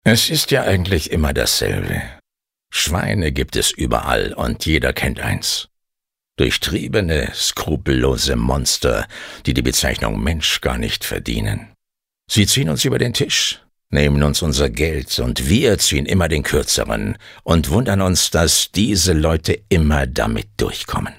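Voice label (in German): acting, Cool; Schweine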